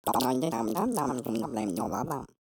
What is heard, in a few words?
Xmas elf talking